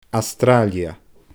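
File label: "Astràilia", Scottish Gaelic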